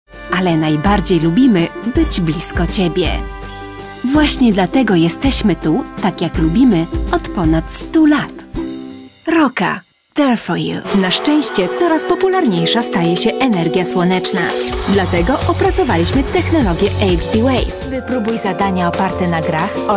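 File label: Polish, female, commercial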